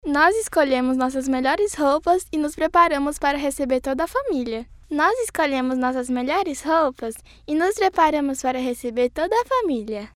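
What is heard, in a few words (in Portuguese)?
Institucional, Voz feminina infantil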